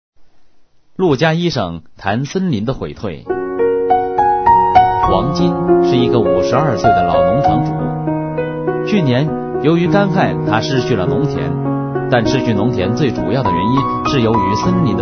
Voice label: deforestation chinese; 2.12 Deforestation